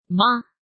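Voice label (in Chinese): "吗", Chinese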